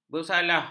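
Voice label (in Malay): "bersalah", Malay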